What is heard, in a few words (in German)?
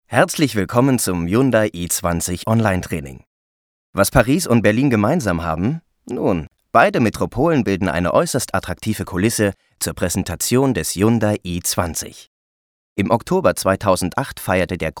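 E-Learning, deutsch Männer; sprecher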